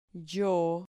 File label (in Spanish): "llo", Spanish syllable